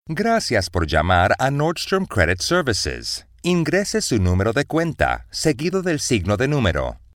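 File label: Latin American Spanish, IVR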